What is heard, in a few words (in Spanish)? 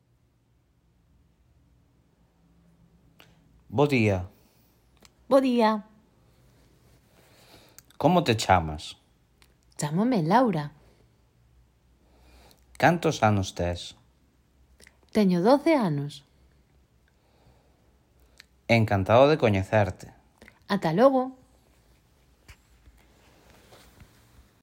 Dialogo en gallego